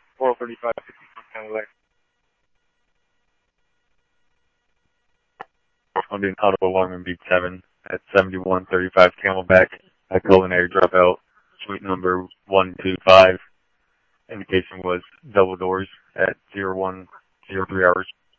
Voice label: Police, scanner audio trunk; H-Scottsdale; SCTSDLE PD - PD1 ScottsdalePolice